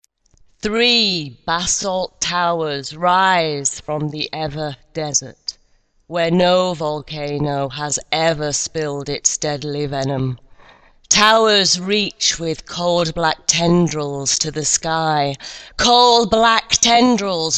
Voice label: Monolog; Tendrils; Mongoose Traveller; Twilight Sector; Ancient Trails